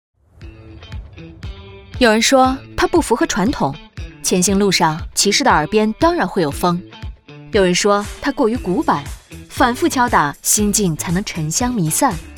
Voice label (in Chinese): 旁白 俏皮 年轻; 龙岩青年